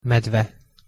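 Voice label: "medve", Hungarian pronunciation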